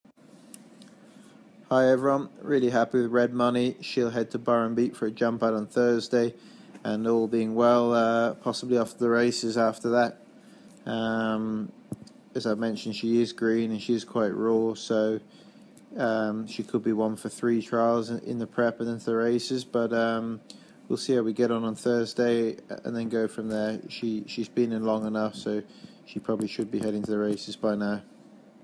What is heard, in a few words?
voice note; Red Money NZ